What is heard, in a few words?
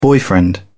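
"boyfriend", English, British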